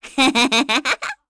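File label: Happy, Korean; heroes; Pansirone; kings raid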